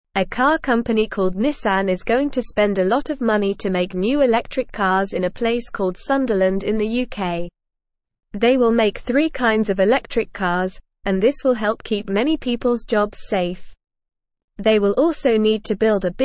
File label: English Beginner NORMAL Reading; Nissan makes new electric cars in UK; English Newsroom